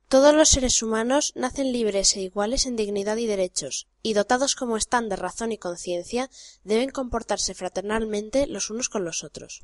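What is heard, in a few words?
Spanish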